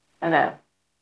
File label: Voice Acting es